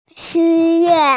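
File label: "shu4ye4", Mandarin Chinese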